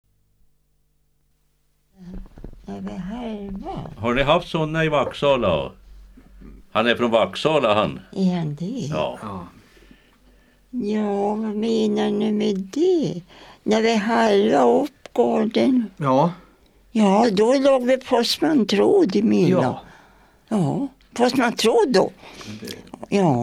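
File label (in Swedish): Väddö Uppland, dialekter; Folkminnen; Svenska sagor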